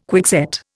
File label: "quickset", Indian